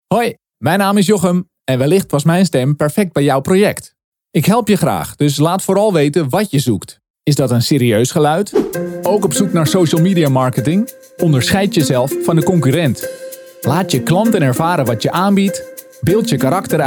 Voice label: 荷兰语男样音

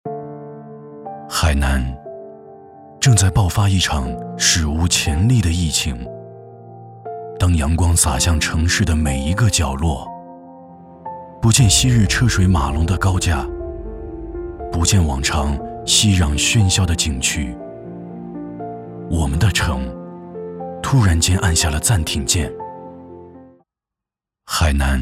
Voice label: 走心; 海南

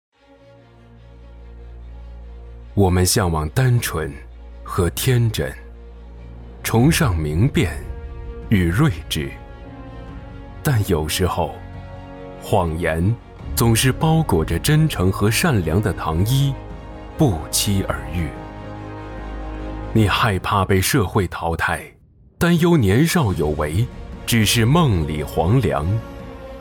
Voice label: 反诈防骗宣传

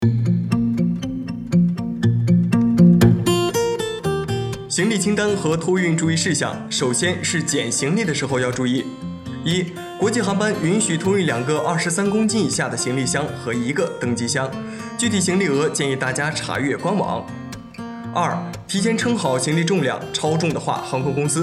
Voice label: 彩铃

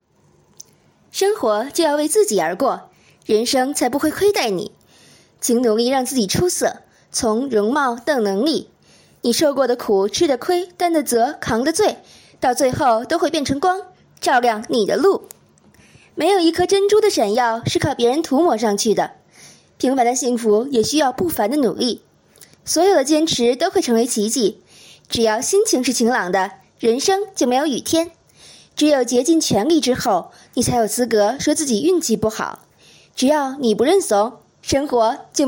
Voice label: 短视频文案励志篇

